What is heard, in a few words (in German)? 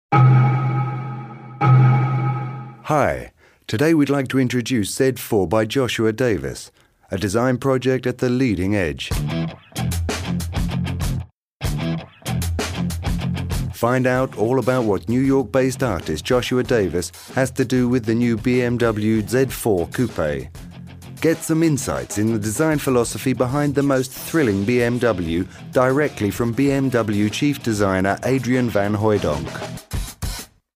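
British Werbung, englisch; sprecher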